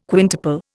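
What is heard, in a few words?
"quintuple", Indian English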